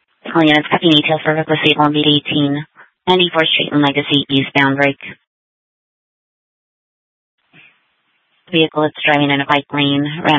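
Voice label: Scottsdale PD North Dispatch; Nth Dispatch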